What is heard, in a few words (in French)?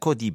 "coddi", Rocca (sud)